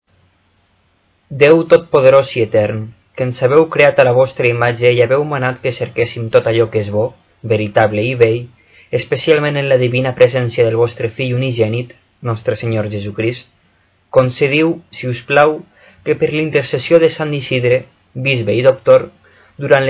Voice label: internet prayer catalan